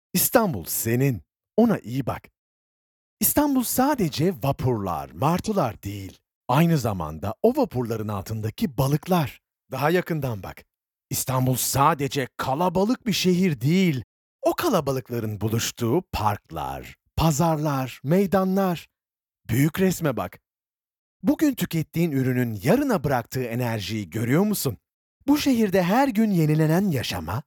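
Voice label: Turkish male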